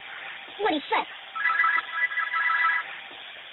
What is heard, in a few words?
voice male